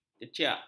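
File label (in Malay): "keciak", Malay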